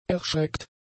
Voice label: "erschreckt", German; adjectives

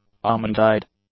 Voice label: "almond-eyed", English; adjectives

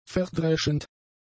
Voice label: "verdreschend", German; adjectives

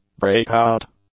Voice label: "break-out", English; nouns

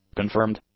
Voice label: "confirmed", English; adjectives

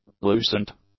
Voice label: "lucent", English; adjectives

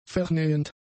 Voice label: "vernähend", German; adjectives

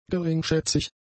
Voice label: "geringschätzig", German; adjectives

